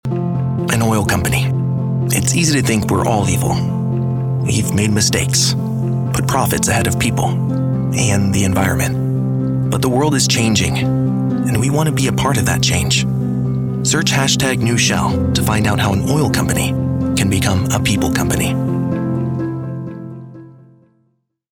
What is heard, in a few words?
Shell Warm Apologetic; Rebrand